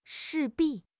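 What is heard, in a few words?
"势必", Mandarin Chinese; HSK6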